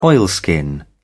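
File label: "oilskin", British English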